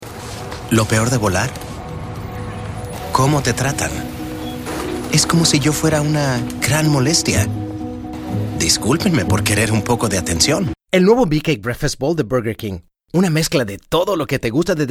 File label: Spanish; Commercial Demo; Voiceover